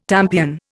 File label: "tampion", Indian